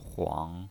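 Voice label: "huang", third tone, pinyin voice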